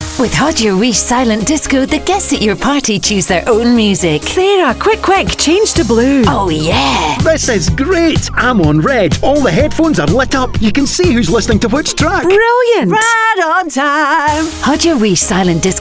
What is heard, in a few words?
radio advert